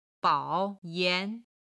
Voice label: "bao3 yan2", Chinese